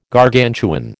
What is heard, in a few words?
"Gargantuan", American